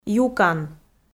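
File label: "юкан", Komi, female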